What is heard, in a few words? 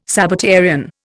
"Sabbatarian", Indian